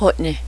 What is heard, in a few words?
wolf, cheyenne language